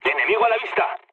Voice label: enemy detected mood low; War Thunder